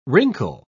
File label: "wrinkle", pronounce